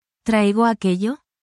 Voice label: spanish, spanish phrases